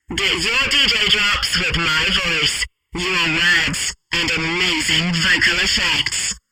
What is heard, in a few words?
Crunchy, DJ Imaging; Voice-over demos; drops; MediaMusicNow